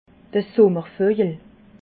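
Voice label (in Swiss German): "summervojel", Alsatian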